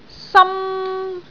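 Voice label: "sam1", Cantonese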